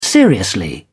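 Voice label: "seriously", British English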